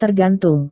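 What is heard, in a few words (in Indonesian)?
"tergantung", Indonesian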